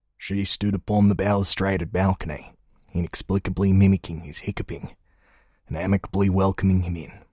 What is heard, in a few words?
Australian Older